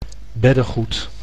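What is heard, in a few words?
"beddegoed", Dutch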